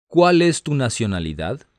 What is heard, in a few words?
Spanish, hotline